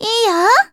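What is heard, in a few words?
story, Japanese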